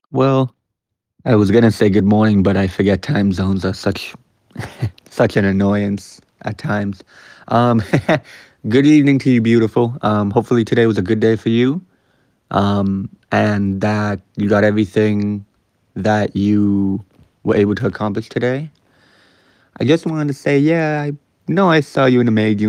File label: voice message